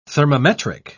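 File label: "thermometric", British English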